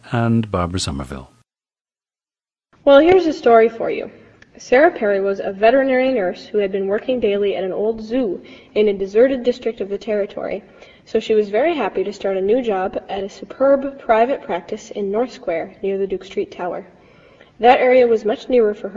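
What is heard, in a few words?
minnesota, International Dialects of English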